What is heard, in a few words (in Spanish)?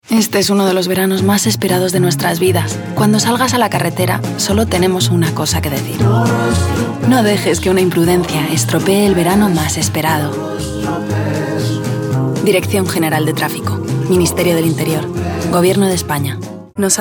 Institucional ES